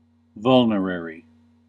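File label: "vulnerary", American English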